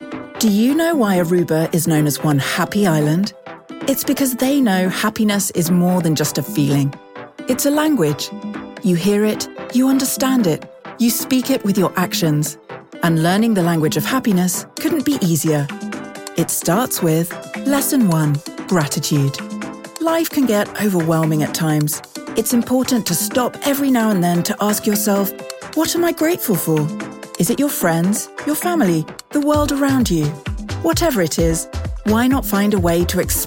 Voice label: UK promo; Aruba

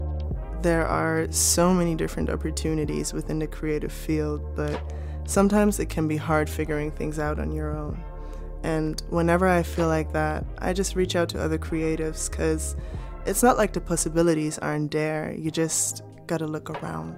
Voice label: Natural UK; Oscam

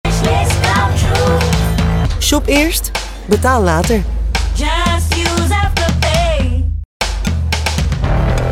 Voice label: NL; OLV; Afterpay

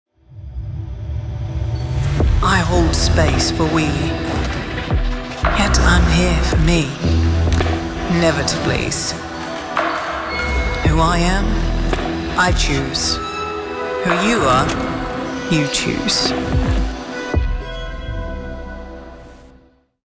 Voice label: UK TVC; WE Fashion You Choose

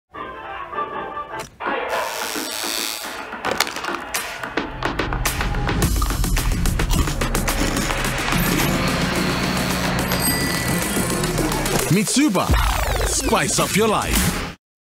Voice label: UK payoff; Mitsuba

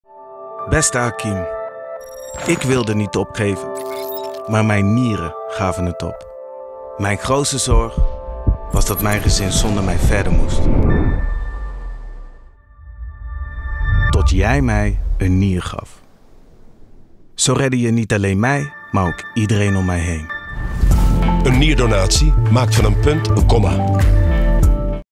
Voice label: TVC testimonial; Nierstichting

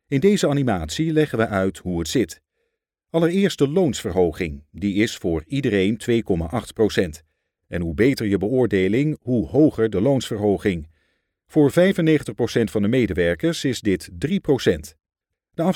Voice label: NL; FNV

